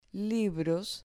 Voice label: "libros", Spanish

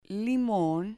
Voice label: "limon", Spanish

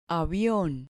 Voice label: "avion", Spanish

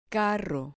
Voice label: "carro", Spanish

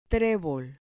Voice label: "trebol", Spanish

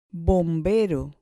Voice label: "fire", Spanish

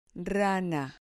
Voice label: "rana", Spanish

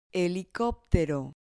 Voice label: "helicoptero", Spanish

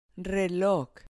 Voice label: "reloj", Spanish

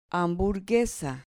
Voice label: "hamburguesa", Spanish

